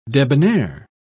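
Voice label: "debonnaire", British English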